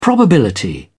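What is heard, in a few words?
"probability", British English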